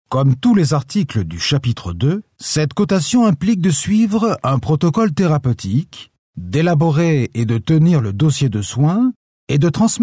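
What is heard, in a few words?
French, male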